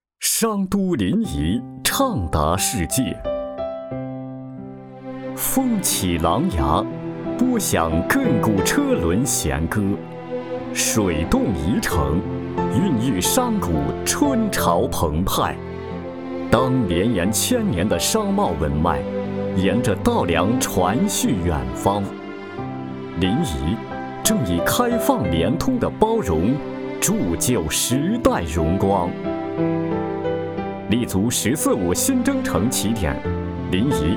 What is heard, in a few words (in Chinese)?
男 专题汇报 物流; 临沂物流